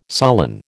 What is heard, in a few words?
"sullen", American